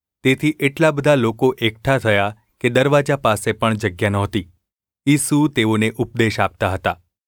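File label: gu ulb mrk, Gujarati; mrk 2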